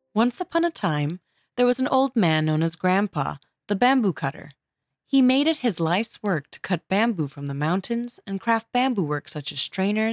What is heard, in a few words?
English